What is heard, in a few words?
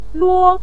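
"luo" neutral tone, Mandarin Chinese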